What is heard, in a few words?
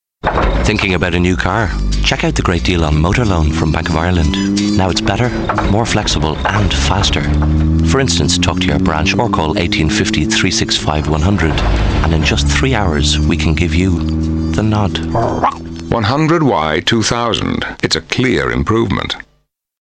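english irish male commercial; voice demos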